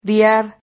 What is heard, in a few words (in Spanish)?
"rihar", silabas